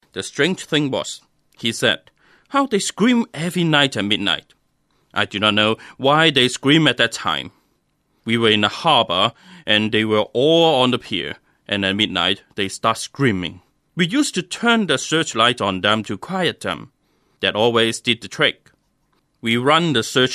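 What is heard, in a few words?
Cantonese, English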